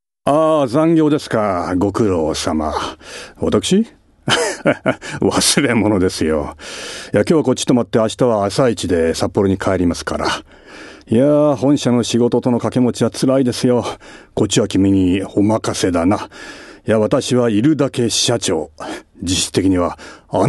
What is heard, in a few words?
male voice